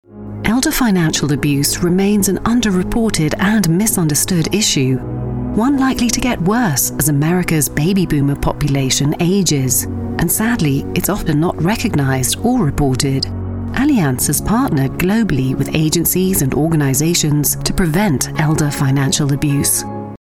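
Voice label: British English Neutral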